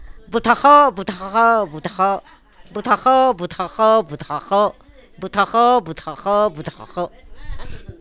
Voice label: man male